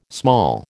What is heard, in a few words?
"small", American